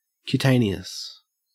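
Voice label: "cutaneous", Australian English; pronunciations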